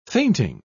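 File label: "fainting", British English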